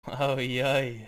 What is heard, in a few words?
"oh yeah", ringtones